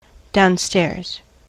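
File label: "downstairs", US English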